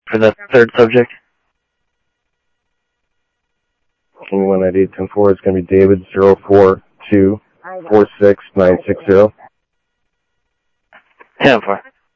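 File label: Sheriff East County, scanner audio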